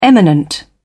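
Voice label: "eminent", British English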